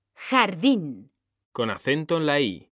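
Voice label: "jardín", Spanish, female; mekanta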